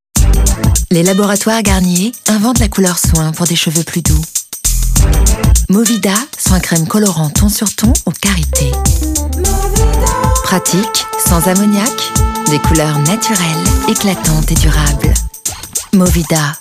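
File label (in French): Femme posee, comédienne voix off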